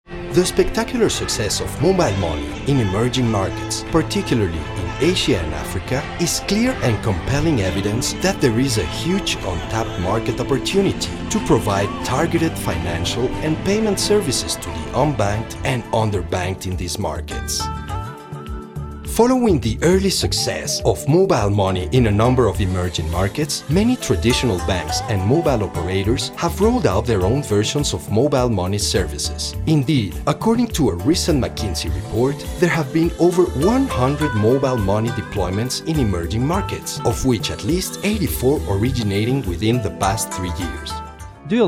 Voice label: English

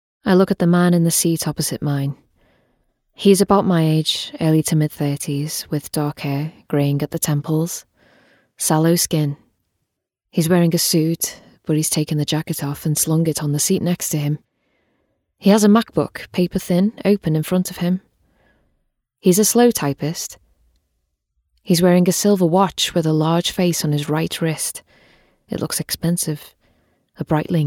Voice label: Audiobook; Girl on the Train

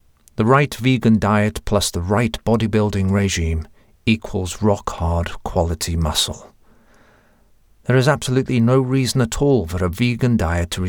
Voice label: Explainer

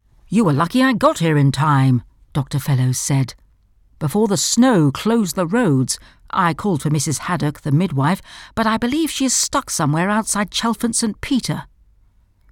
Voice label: Audiobook no slate

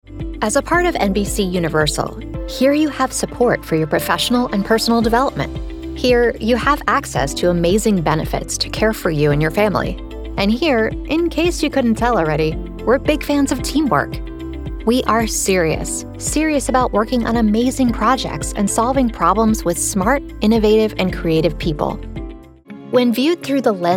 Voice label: Narration

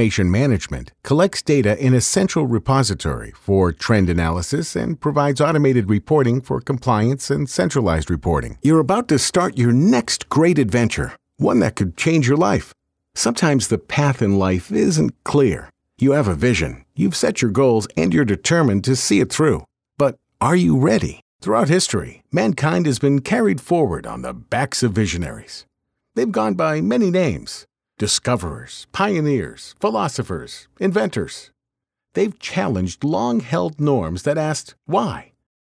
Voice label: E-learning

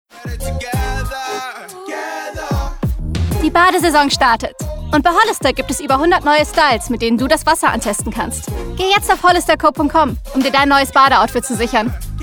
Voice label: COMMERCIAL German; Hollister

